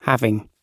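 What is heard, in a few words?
"having", British English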